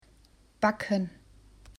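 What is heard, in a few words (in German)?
"backen", German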